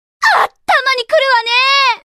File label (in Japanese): エモ（怒り）「あったまに来るわねー！」